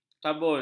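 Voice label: "kabun", Malay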